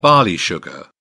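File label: "barley sugar", British English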